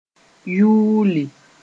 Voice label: "yuuli", moore